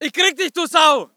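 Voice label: voice german infantry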